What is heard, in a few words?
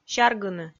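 "сяргыны", Komi, female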